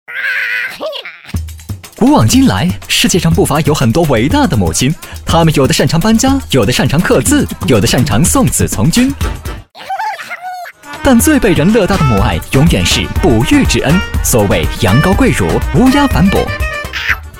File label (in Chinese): 男 飞碟说 母爱 轻松调侃, 国语男声配音 年轻专题